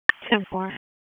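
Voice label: Police Southwest District Disp, radio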